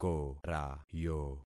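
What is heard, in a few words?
"korayo", concatenated syllables; Affixing experiment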